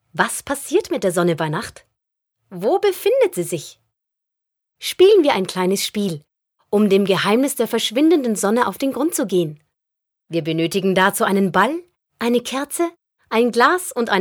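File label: German elearning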